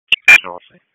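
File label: Fire Department HQ Dispatch; RFD HQ DISP; wake; p25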